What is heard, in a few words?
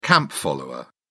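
"camp follower", British English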